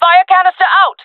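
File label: throwing fire, female voice; player vo; Counter Strike Model Pack; CS:GO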